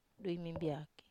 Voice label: "dui mimbiaki", Wamesa

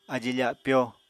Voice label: "ají-fapyó", Apatani

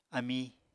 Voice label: "amí", apatani

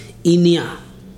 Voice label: "sore", Ibibio

